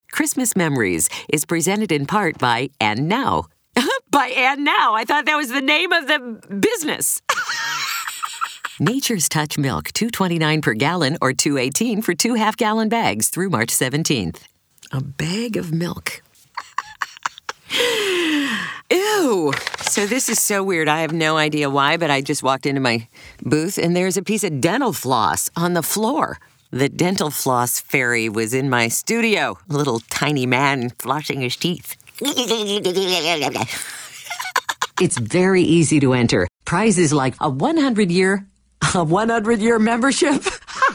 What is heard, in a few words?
RADIO BLOOPERS